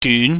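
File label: "dyun", Cantonese, mid rising tone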